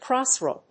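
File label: "cross-rail", English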